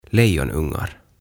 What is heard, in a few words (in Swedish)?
"Lejonungar" Leijonanpennut